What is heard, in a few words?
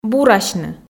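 "бурасьны", Komi, female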